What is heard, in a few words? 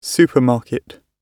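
"supermarket" spoken, British English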